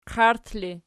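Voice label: "qairt" ergative, Archi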